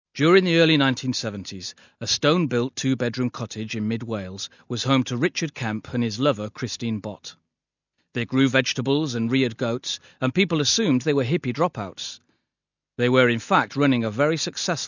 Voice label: Narration